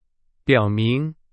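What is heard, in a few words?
Chinese; hsk3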